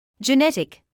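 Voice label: "genetic" English, British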